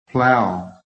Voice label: "plow", american; PHONETIC

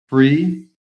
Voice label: "breathe", american

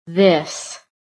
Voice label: "THIS", american

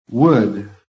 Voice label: "WOOD", phonetic

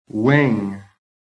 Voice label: "WING", american

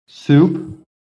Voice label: "SOUP", american

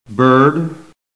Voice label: "BIRD", american; PHONETIC

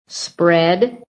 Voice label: "SPREAD", american

